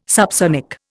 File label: "subsonic", Indian